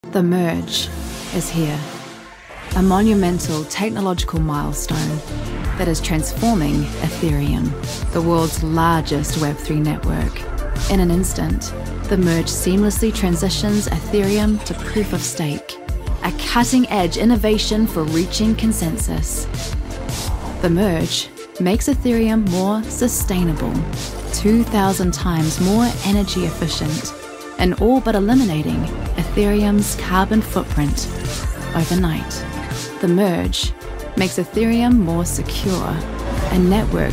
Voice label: english international commercial